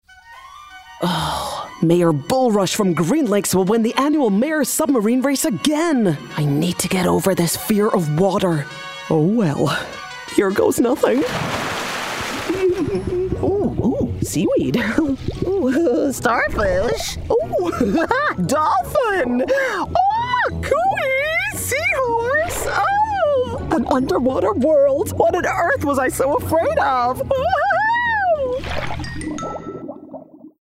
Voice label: Animation Character Examples